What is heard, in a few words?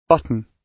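"button", British English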